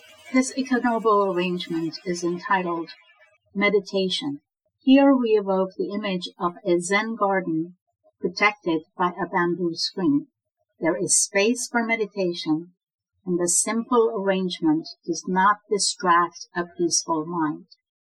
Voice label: meditation; ikenobo